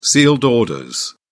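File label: "sealed orders", British English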